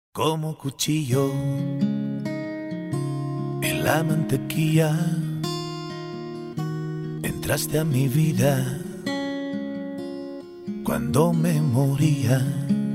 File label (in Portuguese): ANIV PARA ESPOSA, telemensagem